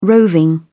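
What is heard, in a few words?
"roving", British English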